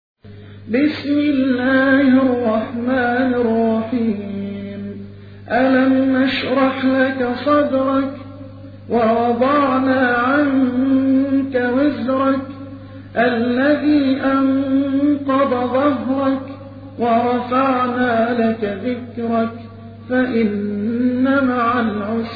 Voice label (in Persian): ترتیل سوره مبارکه شرح; محمد عبدالعزیز حصان